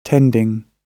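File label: "tending", British English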